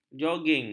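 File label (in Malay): "joging", Malay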